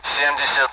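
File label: Speech Sound Russian Common AWACS Numbers; DCS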